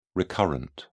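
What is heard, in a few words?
"recurrent", British English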